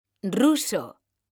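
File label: "ruso", Spanish, female; mekanta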